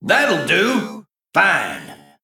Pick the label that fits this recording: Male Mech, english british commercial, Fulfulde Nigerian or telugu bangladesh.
Male Mech